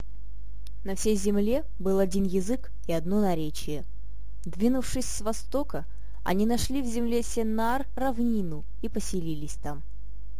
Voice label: Russian

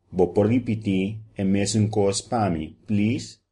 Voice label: say again papiamento

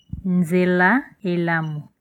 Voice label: bon voyage, Lingala

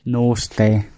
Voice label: good night, gwentian

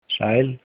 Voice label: hello, icelandic; Sæl; (>f)

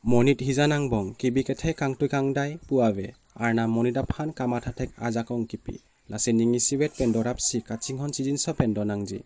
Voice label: udhr karbi

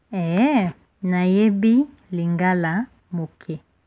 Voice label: yes a little, Lingala; Ee, nayebi lingala moke; Do you speak Lingala?; Olobaka lingala?

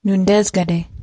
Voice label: "he's hungry", ojibwe

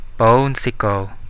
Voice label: thanks, central dusun

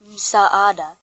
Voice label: help, swahili

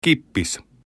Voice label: cheers, finnish

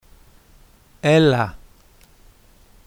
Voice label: "hello", Greek

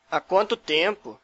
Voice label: long time no see, portuguese br

